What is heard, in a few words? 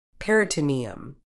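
"peritoneum", medical English, British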